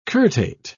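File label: "curtate", British English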